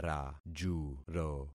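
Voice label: "rajuro", concatenated syllables; Affixing experiment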